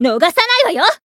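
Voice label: encount, Japanese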